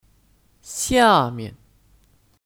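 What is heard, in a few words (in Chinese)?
"下面" Chinese; Xiàmian; Dibawah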